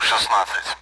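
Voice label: Speech Sound Russian Common AWACS Numbers; 16-end; DCS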